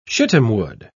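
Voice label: "shittimwood", British English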